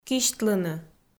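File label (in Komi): "кисьтлыны", Komi, female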